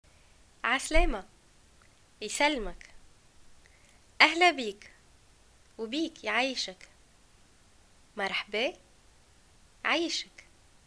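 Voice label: greeting hi and response, Tunisian Arabic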